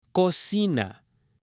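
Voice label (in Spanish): "COCINA", Spanish, male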